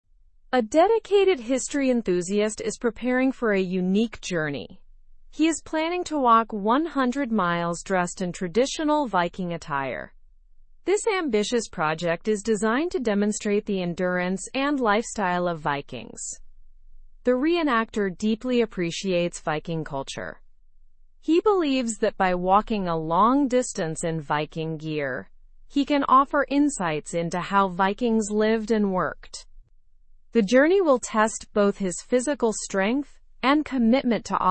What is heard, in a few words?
english through news upper intermediate; history enthusiast plans 100 mile viking walk